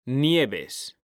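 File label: "nieves", Spanish; mekanta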